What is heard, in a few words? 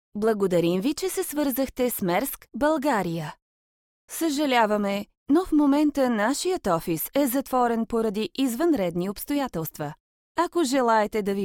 bulgarian f ivr, voice; voice demos